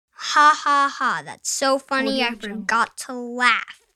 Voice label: child saying sarcastic ha ha ha thats so funny; i forget to laugh

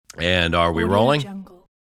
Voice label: announcer are we rolling

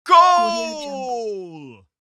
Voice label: man yelling "goal"

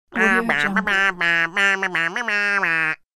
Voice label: cartoon nasal mocking voice

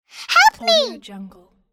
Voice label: cartoon girl help me

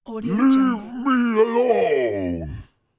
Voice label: fantasy giant says leave me alone

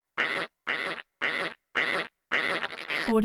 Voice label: robot voice nasty growly

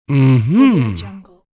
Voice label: male reaction affirmative "mhm"

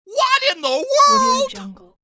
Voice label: male comical what in the world

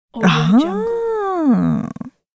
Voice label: female astonished voice

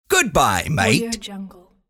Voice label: male goodbye mate with accent; applehillstudios

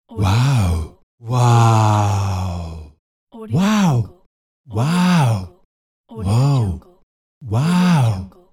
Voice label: male wow

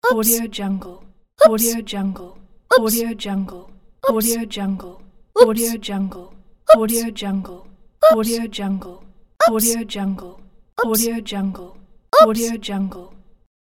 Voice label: female oops

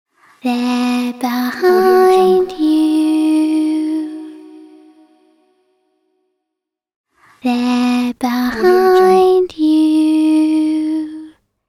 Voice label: young girl saying theyre behind you

